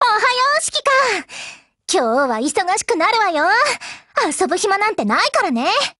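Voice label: Japanese, Voice; GF Alarm; MicroUZIMod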